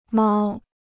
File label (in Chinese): "猫", Chinese; māo; cat; (dialect) to hide oneself